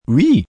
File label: "Yes", male, French; Response, foreign; Talking